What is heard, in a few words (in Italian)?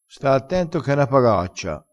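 "pagaccia", dialettale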